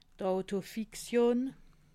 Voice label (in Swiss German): "autofiktion", alsace